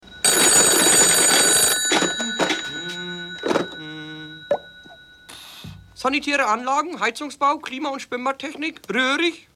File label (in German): Telefonansage